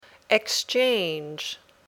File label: "exchange", English